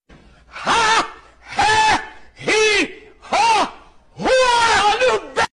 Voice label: Ha He Hi Ho Hu Aggresive

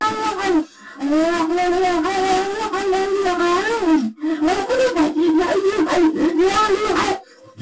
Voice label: Random Gibberish

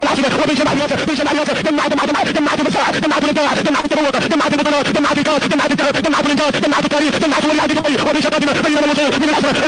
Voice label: Fast Talk; Ishowspeed

